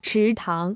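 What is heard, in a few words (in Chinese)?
"池塘" Chinese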